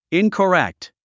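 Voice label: "incorrect", English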